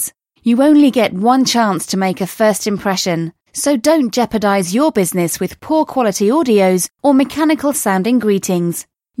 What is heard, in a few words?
female voice